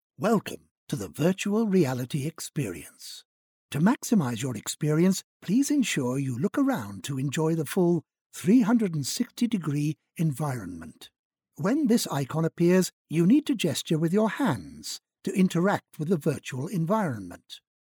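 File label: English, British, male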